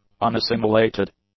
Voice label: "unassimilated", English; adjectives

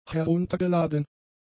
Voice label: "heruntergeladen", German; adjectives

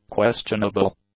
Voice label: "questionable", English; adjectives

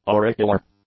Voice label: "auricular", English; adjectives

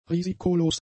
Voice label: "risikolos", German; adjectives

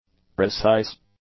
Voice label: "precise", english; adjectives

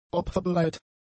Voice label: "opferbereit", German; adjectives

